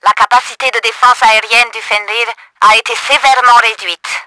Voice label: launcher down, french; Battle Engine Aquila

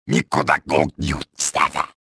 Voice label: give up, trandoshan; XBOX

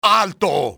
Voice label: stop, spanish soldier; enemy; XBOX

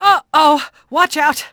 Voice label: ENG; Cars; XBOX

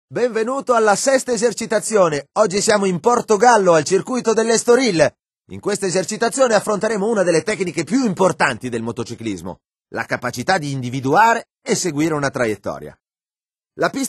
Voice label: racingline italian; MotoGP 3

